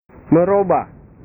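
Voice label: "meroba", Indonesian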